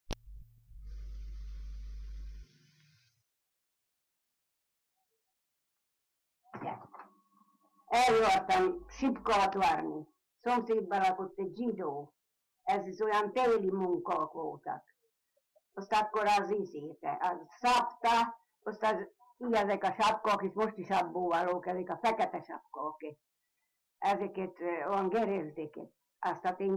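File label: dialect collection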